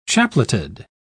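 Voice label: "chapleted", British English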